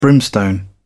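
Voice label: "brimstone", British English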